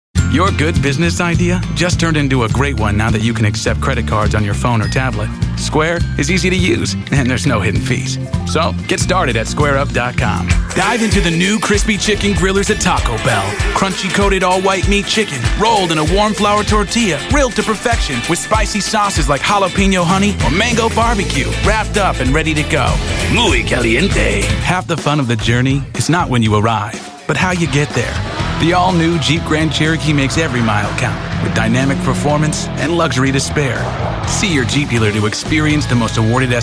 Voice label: Commercial